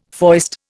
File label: "foist", Indian